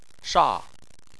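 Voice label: "sha" tone 4, Chinese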